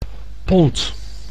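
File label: "pond", Dutch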